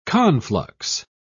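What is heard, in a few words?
"conflux", British English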